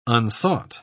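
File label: "unsought", British English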